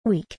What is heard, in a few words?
"Week", UK